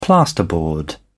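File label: "plasterboard", British English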